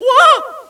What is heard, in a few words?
"whoa", Echo inside caves, underground; DS; ML BOWSERSINSIDE; Mario